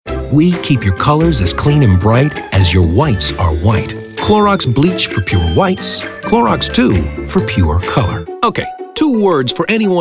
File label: Commercial; male voice actor